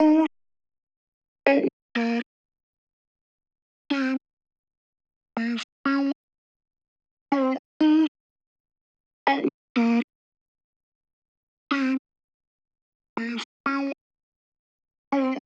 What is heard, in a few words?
123 Kit Loop Vocal Chops DRY A-m